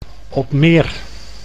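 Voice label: "Opmeer", Dutch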